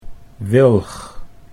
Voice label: "wilg", Dutch